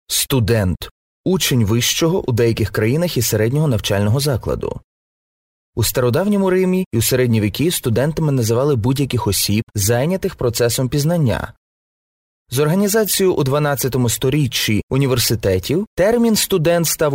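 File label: Ukrainian, male, elearning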